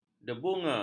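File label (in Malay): "debunga", Malay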